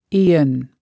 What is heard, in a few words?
"aeon", spoken